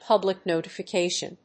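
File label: "public notification", English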